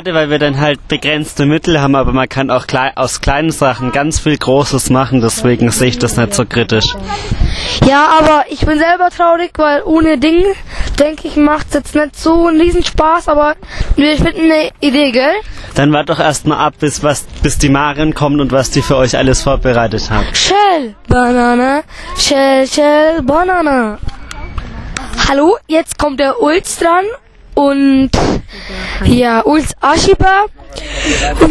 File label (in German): interview